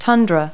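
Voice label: "tundra", English word; Multi-Lingual Talking Picture Dictionary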